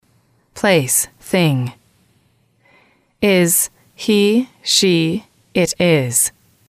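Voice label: "he she it is", English, female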